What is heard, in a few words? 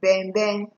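"benben", Nigerian Pidgin, female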